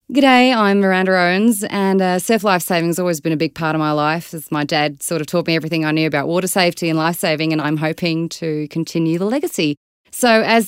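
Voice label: English Conversational Australian Neutral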